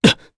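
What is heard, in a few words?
Vox Landing, Japanese; kingsraid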